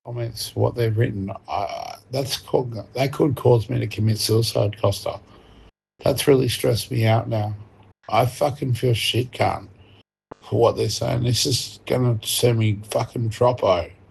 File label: voice note